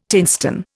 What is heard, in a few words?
"tinstone", Indian